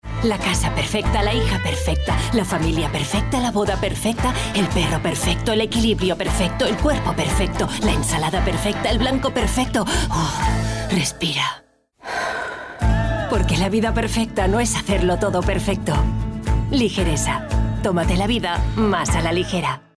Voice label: female spanish food comercial